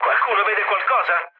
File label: chat, speech it; War Thunder